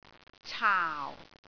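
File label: "caau4", Cantonese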